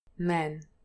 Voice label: "men", English pronunciation

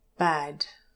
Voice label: "bad", English pronunciation, trap vowel

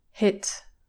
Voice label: "hit", english pronunciation

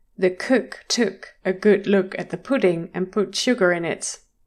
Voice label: cook took, english pronunciation; The cook took a good look at the pudding and put sugar in it.

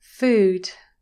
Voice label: "food", english pronunciation

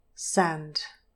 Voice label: "sand", English pronunciation, trap vowel

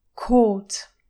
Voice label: "caught", english pronunciation

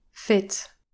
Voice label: "fit", English pronunciation

